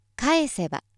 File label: female